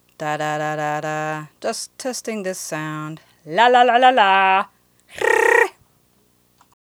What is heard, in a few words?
Talking MXL 990